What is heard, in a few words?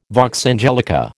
"vox angelica", American